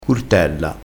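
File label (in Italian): "curtella", vocabolario dialettale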